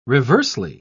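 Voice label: "reversely", British English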